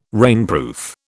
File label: "rainproof", British